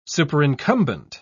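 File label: "superincumbent", English, British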